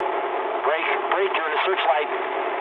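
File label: English searchlite mood low; War Thunder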